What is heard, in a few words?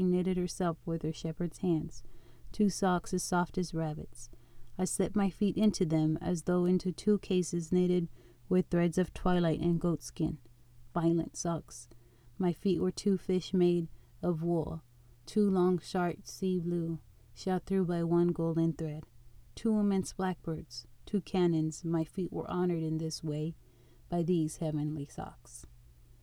AKG Super Cardiod 1ft; AKG 414